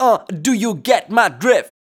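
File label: vocal male, Dance Vocals, spoken words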